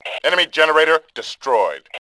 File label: Male; Tribes2